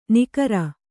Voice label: "ನಿಕರ", Kannada; nikara; a crowd of people.